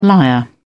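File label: "liar", British English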